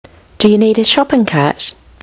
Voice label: do you need a shopping cart, kiwi english

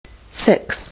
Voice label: "six", Kiwi English

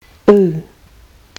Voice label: long u, kiwi english

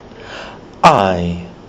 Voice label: ae as in marae, kiwi english

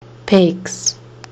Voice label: "pegs", kiwi english

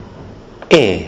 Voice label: e as in mere, kiwi english

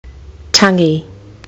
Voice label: "tangi", Kiwi English

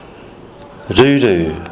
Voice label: "ruru", kiwi english

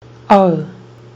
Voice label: vowel in goat, Kiwi English

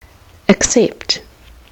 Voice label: "accept", kiwi english

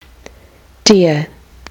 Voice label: "dear", kiwi english

